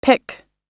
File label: "pick", us